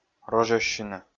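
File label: "розьӧссьыны", Komi, male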